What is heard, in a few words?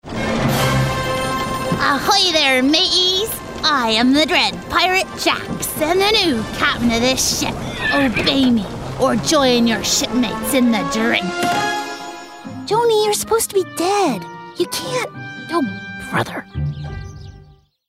ANIMATION Girl; Jax